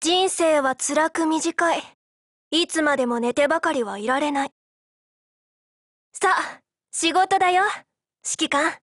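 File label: HELLO Japanese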